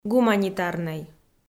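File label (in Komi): "гуманитарнӧй", Komi, female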